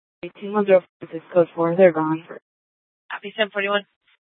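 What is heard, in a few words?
police dispatch, scanner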